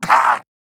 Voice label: pain, wolf gangster; Warframe; Grineer; Battle Barks